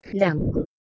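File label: "h1lengwh", Proto-Indo-European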